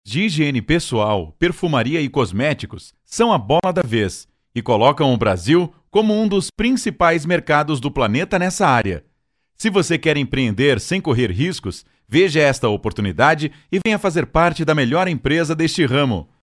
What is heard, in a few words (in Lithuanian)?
VYR Portugalu balsas, vyras